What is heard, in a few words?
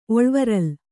"ಒಳ್ವರಲ್", Kannada